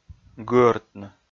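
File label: "гыӧртны", Komi-Zyrian, male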